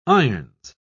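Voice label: "irons", British English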